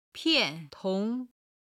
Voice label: "pian4tong2", chinese